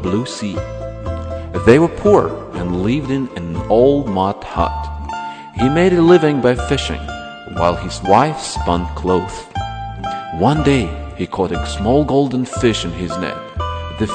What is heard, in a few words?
russian folk tale